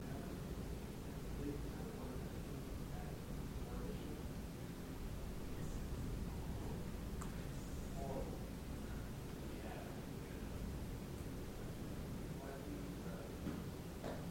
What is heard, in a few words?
there sounded a Yesss drawn out; while team was talking